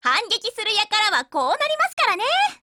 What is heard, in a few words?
WIN, JP